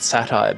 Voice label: british bloke, Voice drops